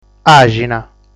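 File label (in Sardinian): "Àxina", Sardinian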